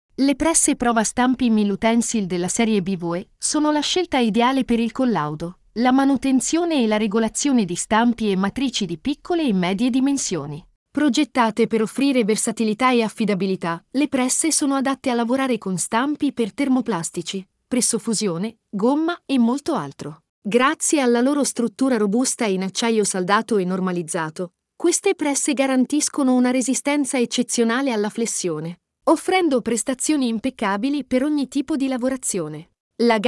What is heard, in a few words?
Voice over